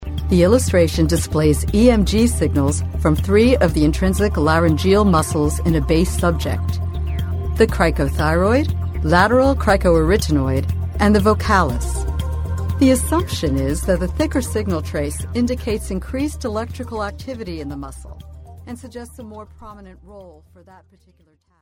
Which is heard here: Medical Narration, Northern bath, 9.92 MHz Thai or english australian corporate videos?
Medical Narration